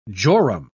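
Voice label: "jorum", British English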